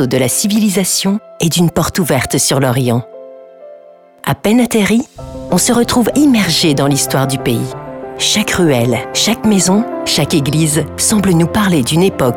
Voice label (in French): voix documentaire Archipel de Malte